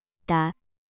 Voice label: korean; supertonic